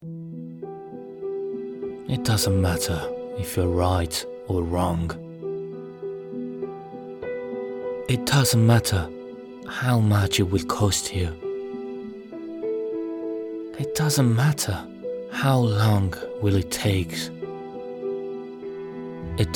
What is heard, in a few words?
male italian english with italian accent commercial; alpha romeo